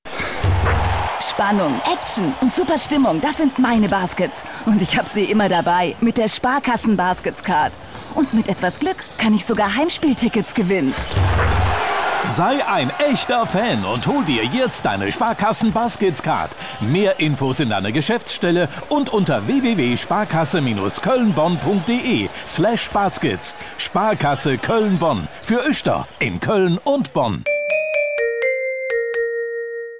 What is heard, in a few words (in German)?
funkspot; sparkasse koelnbonn; Baskets 2011